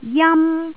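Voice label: "jam1", Jyutping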